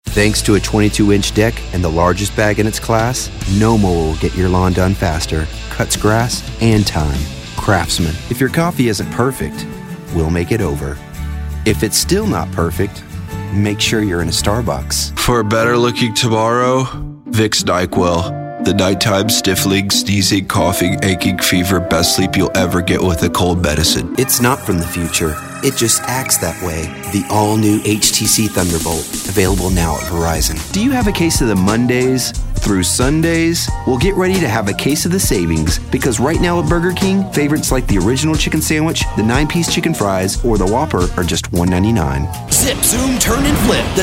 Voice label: commercial

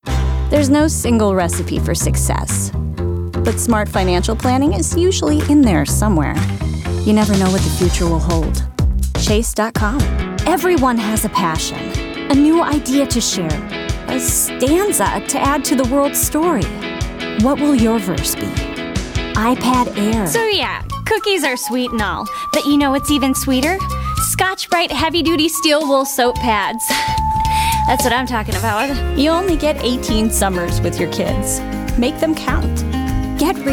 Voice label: female commercial

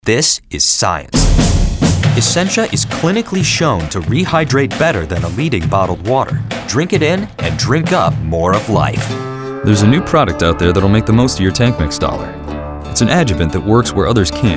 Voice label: Male, commercial

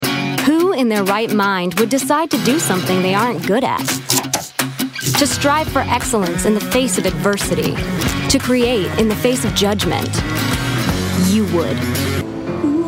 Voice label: Female, commercial

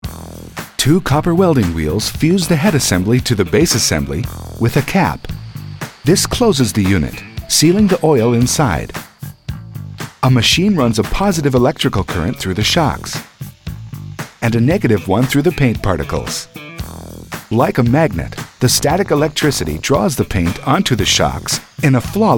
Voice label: narration

